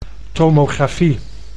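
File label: "tomografie", Dutch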